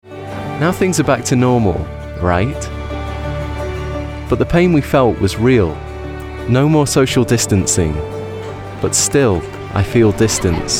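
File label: moving emotional, Male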